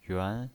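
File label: "yuan" Mandarin Chinese pinyin voice, second tone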